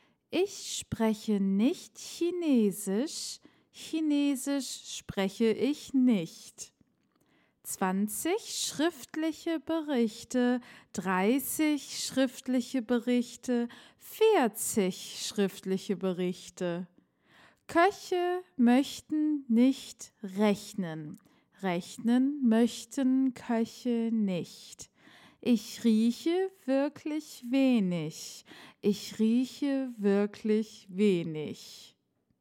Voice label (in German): Aussprache Ich-Laut tongue twister; DaF